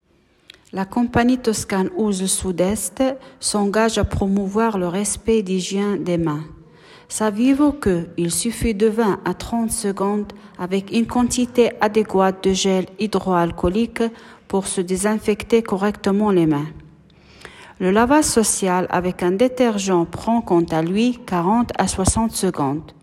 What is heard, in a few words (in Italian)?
francese